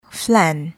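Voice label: "flan", English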